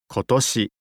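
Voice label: "kotoshi", Japanese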